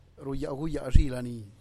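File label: "ruyaguyaadxilani", Teotitlán del Valle Zapotec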